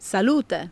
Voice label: cheers, Italian